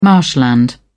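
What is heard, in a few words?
"marshland", British English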